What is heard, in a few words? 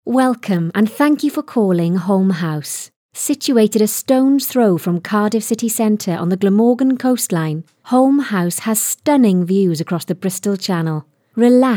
Welsh Female; regional voiceovers